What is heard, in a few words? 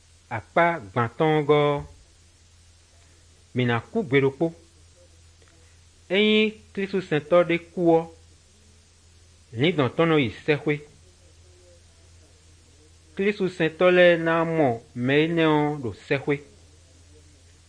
good news, fon fongbe bible drama